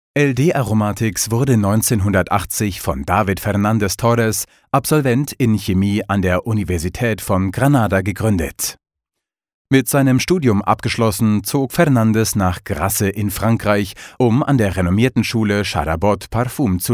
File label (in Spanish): Masculino; Sonoarte